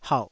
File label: "hauh3", taiwanese